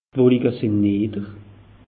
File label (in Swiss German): "wolike sinn nidder", alsace; meteo